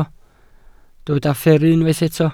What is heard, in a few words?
"fferru", Welsh